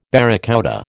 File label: "barracouta", American